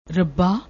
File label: "rubba", Punjabi